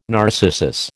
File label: "narcissus", American